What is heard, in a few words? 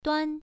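"duan" Mandarin, first tone, pronunciation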